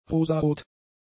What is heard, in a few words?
"rosarot", German; adjectives